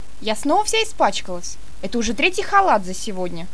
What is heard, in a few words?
monologue; svencoop; paranoia